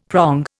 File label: "prong", Indian; Dictionary